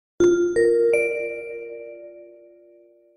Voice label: announcement